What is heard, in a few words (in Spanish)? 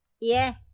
"mano", Otomí; INAH; INALI